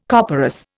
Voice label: "copperas", Indian